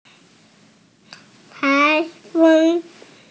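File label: "tai2feng1", Mandarin Chinese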